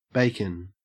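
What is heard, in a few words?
"bacon" Australian English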